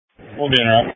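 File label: Emergency Medical Services Dispatch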